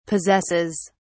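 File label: "possesses", British English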